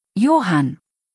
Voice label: "Johann", deutsch, jungennamen

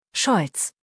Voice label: "Scholz", deutsch

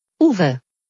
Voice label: "Uwe", deutsch, jungennamen

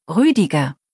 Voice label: "Rüdiger", deutsch, jungennamen

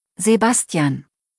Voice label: "Sebastian", deutsch, jungennamen

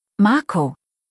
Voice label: "Marco", deutsch, jungennamen

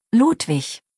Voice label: "Ludwig", deutsch, jungennamen

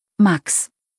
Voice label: "Max", deutsch, jungennamen

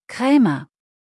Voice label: "Krämer", deutsch

